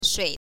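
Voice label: "shui", Mandarin Chinese, neutral tone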